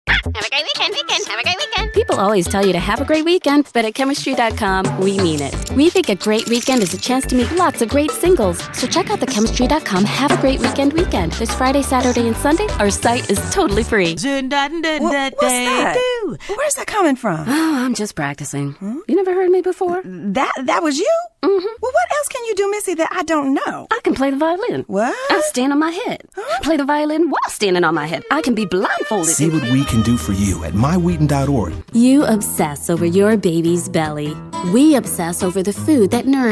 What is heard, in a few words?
Commercial